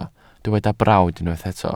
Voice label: "brawd", Welsh

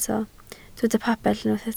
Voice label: "pabell", Welsh

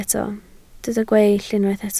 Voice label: "gweill", Welsh

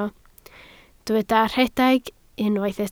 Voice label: "rhedeg", Welsh

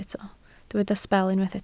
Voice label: "sbel", Welsh

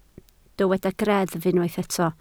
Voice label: "greddf", Welsh

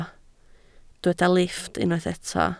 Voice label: "lifft", Welsh

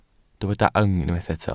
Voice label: "yng", Welsh

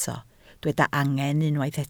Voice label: "angen", Welsh

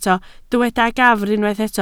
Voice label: "gafr", Welsh

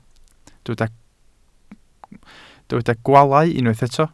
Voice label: "gwaalau", welsh

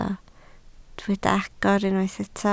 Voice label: "agor", Welsh

